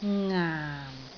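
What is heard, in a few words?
"ngaam4", Cantonese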